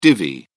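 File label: "divi", British English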